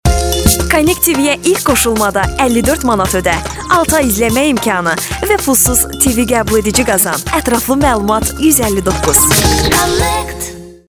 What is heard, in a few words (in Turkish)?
Azerice Kadin